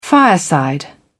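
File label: "fireside", British English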